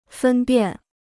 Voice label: "分辨", Chinese

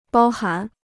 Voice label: "包含", Chinese

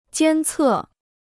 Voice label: "监测", Chinese

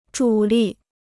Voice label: "主力", Chinese

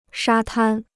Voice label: "沙滩", Chinese

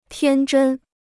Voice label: "天真", Chinese

